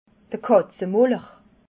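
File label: "katzemoller", Alsatian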